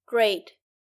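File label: "grate", English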